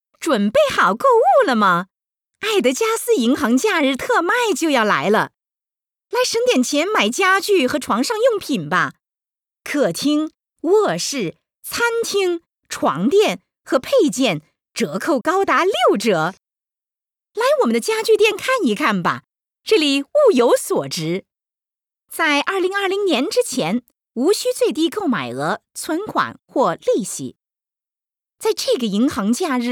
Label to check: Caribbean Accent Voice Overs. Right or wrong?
Wrong